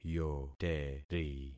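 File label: "yoteri", concatenated syllables; Affixing experiment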